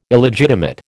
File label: "Illegitimate", American